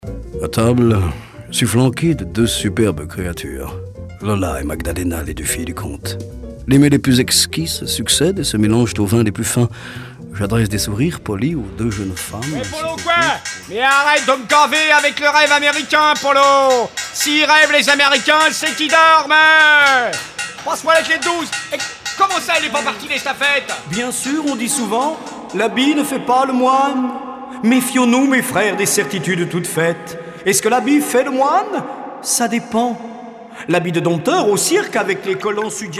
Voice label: voix comedie frimeur artisan precheur attarde enerve vieux parigot

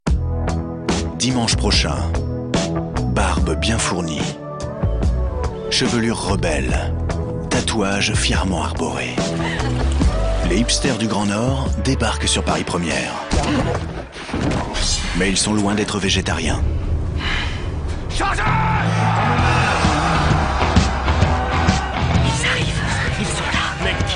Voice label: Voix Grave Bande annonce; Vikings; Paris Premiere

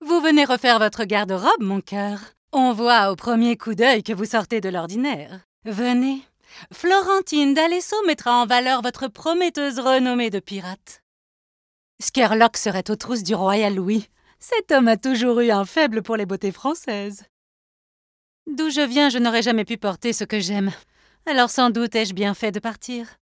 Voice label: Jeu Video Epoque Couturiere pirate

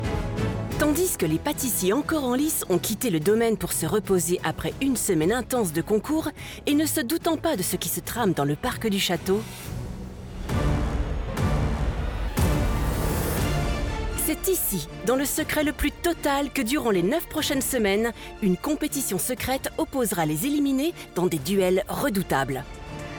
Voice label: Voix Off; Le Meilleur Patissier; La Cuisine secrete

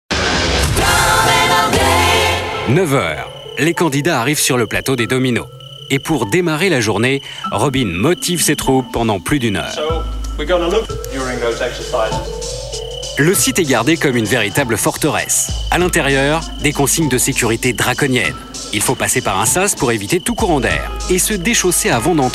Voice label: Voix off; Domino Day

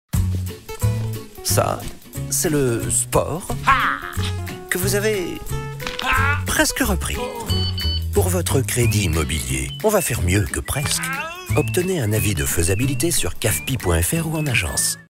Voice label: Pub TV Web; CAFPI; le karate

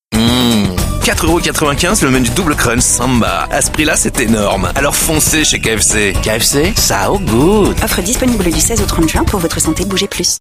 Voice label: PUB RADIO; KFC

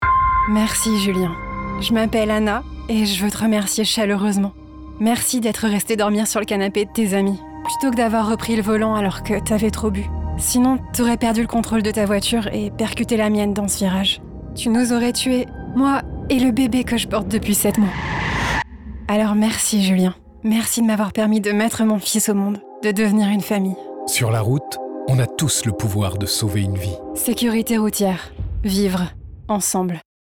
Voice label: pub comedie SECURITE ROUTIERE comedie signature

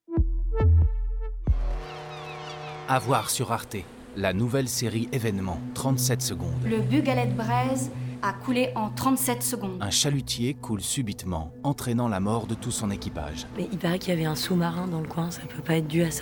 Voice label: Bande annonce serie; Arte

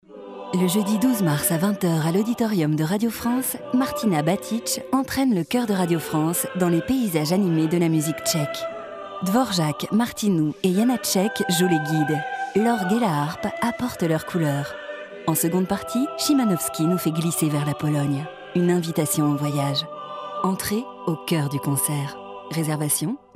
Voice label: Spot

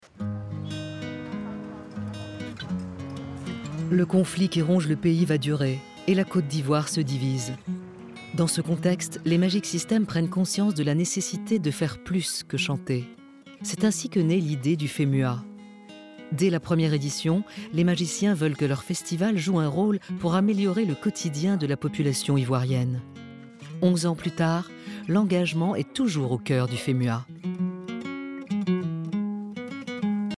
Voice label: narration; AFRIQUE MAGIC

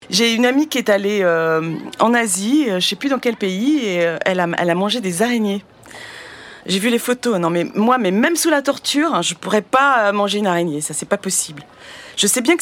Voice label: MICRO TROTTOIR

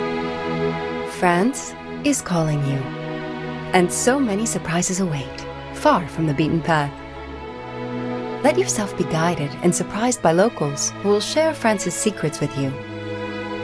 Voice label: trailer télé

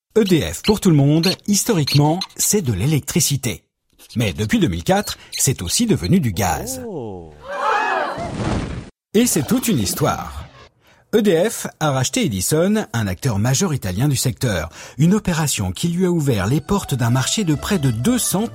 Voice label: Voix Off Motion Design; EDF GAZ

